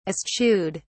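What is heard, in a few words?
"eschewed", British English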